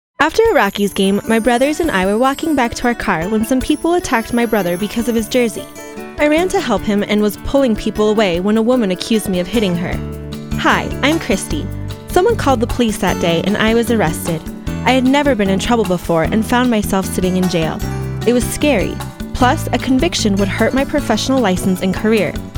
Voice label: Radio testimonial; OMALLEY LAW